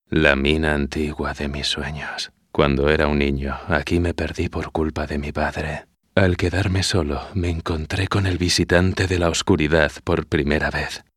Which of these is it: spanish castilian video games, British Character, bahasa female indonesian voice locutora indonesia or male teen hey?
spanish castilian video games